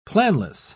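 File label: "planless", British English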